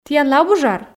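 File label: "тiянлы абу жар", Komi, female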